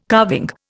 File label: "carving", Indian